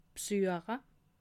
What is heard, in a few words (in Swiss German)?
"bsueche", alsace